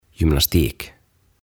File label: "Gymnastik" "Voimistelu"